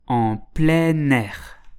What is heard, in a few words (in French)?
"en plein air", French